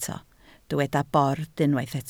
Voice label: "bord", Welsh

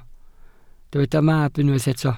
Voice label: "mab", Welsh

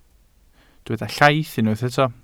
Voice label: "llaith", Welsh

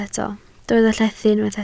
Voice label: "llethu", Welsh

